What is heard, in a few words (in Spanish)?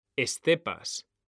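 "estepas", Spanish; mekanta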